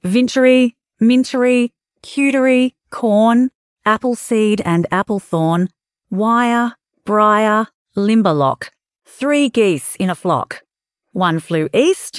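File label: Vintery Mintery Cutery Corn, female Australian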